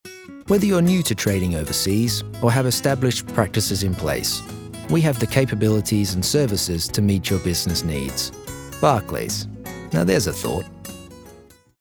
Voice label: uk commercial